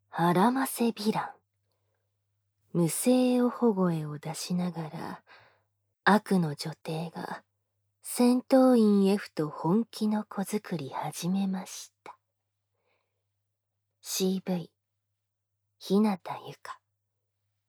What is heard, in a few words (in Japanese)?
タイトルコール